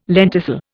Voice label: "lenticel", Indian